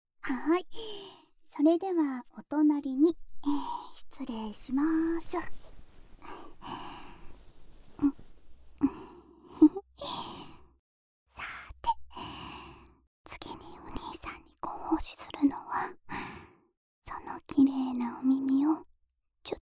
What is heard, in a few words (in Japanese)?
右耳の耳舐め, ASMR; 脳みそトロけるまで……ぺろぺろしてあげますね？